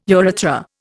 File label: "urethra", Indian